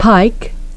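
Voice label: "pike", English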